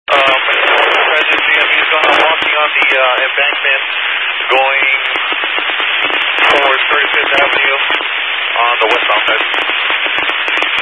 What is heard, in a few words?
Highway Patrol, scanner; DPS